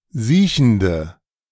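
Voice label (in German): "siechende", German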